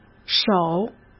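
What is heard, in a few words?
"首", Mandarin Chinese; chinese flashcards; hsk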